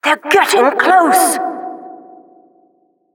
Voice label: Announcer race general